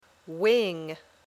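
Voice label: "wing", English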